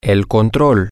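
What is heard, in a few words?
the remote control, spanish, male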